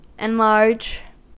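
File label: "enlarge", US English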